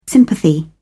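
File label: "sympathy", English, British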